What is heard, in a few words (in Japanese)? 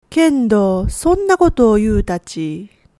"kendo", woman; hogen